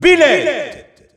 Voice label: French Announcer; Byleth; EU; SSBU

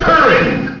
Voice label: Japanese Announcer; Jigglypuff; SSBM

